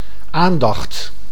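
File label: "aandacht", Dutch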